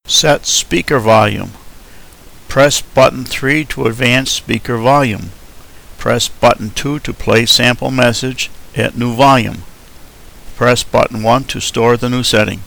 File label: set speaker volume, Male English